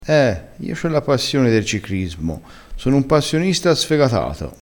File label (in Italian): "cicrismo", crespinese